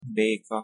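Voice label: "beka" pronunciation Hungarian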